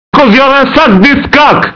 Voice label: mumble; svencoop; cracklife; gman